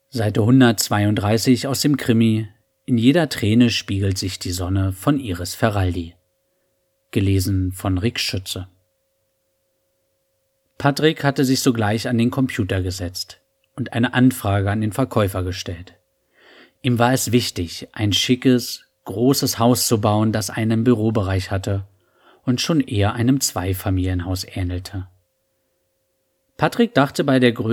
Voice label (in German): Hörbuch